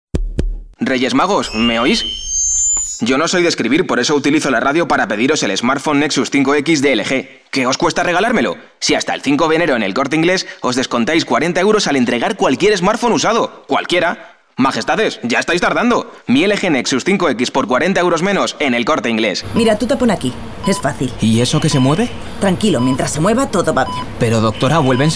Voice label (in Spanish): spanish spain male generic